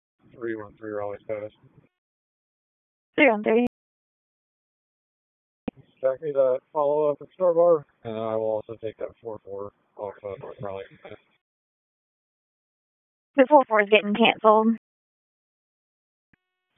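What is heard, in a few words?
Police Northeast District Dispatch, Raleigh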